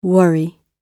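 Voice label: "worry", British English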